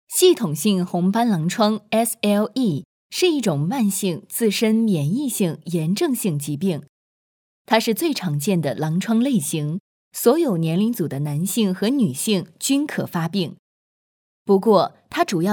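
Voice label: Chinese, female, narration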